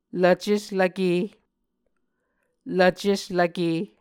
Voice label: "lvceslvke", Muscogee